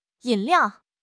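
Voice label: "yin3 liao4", Chinese; HSK3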